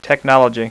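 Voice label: tech male; voice; Web Clip Empire 150,000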